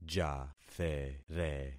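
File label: "jathere", concatenated syllables; Affixing experiment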